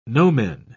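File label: "nomen", British English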